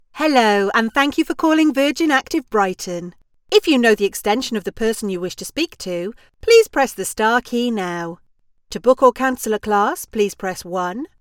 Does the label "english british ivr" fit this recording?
Yes